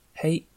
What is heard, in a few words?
hello livonian